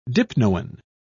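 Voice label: "dipnoan", British English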